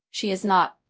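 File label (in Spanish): Voice Acting, es, female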